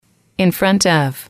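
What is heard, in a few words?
"in front of", English, female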